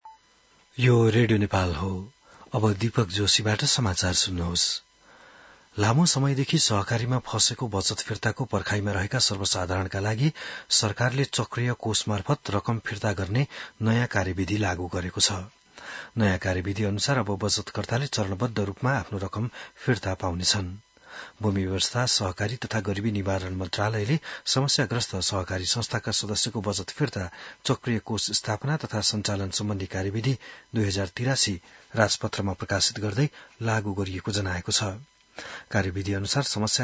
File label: Nepali News; बिहान १० बजेको नेपाली समाचार